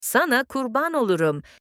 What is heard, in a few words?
let me be sacrificed for you in turkish